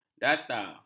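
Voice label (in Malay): "data", Malay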